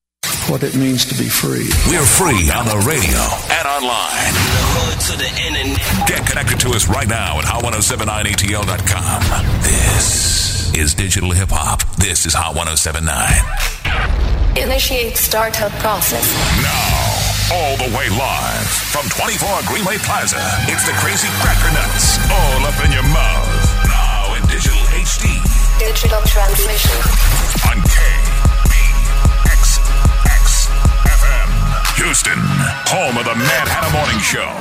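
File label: voice over hip hop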